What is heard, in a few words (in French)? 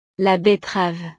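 "la betterave", French; verduras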